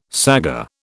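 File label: "saggar", British English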